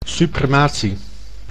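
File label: "suprematie", Dutch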